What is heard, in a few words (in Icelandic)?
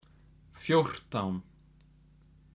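"fjórtán", Icelandic; tolur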